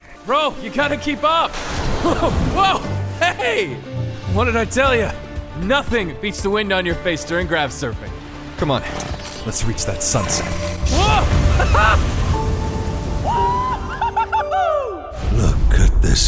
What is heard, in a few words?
Character